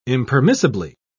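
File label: "impermissibly", British English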